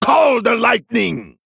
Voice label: Male Troll Chain Lightning